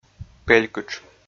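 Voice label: "пелькытш", Komi, male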